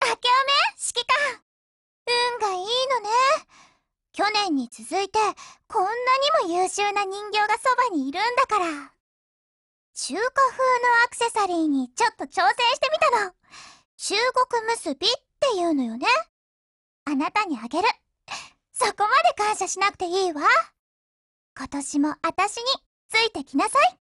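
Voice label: Japanese; New Year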